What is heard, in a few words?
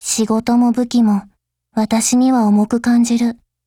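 dialogue, Japanese; Gepard M1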